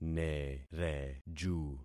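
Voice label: "nereju", concatenated syllables; Affixing experiment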